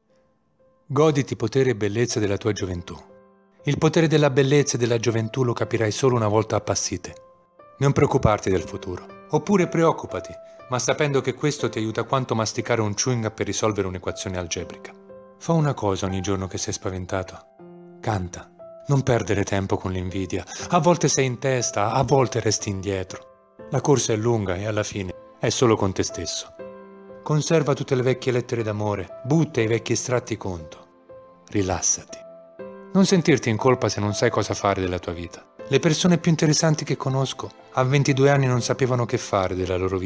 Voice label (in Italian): Promo voce e MUSICA